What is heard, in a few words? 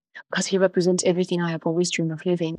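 Voice Acting es, female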